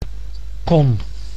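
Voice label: "kon", Dutch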